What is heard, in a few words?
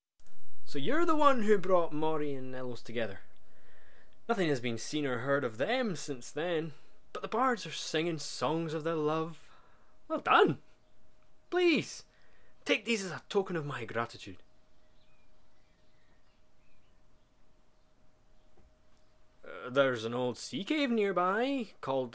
breton, voice auditions